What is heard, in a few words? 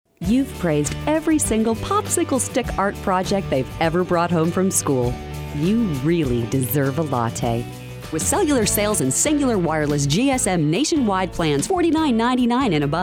commercial voice